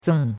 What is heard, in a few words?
"zeng4", chinois; Cours-de-Chinois